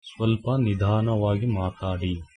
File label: slowly, kannada